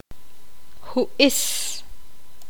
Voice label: poqomam; nariz

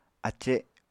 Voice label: "ace", apatani

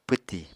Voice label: "pwtì", Apatani

